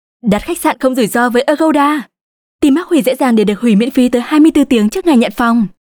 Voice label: North Female, North Vietnamese